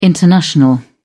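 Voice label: "international", British English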